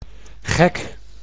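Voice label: "gek", Dutch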